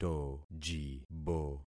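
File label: "tojibo", concatenated syllables; Affixing experiment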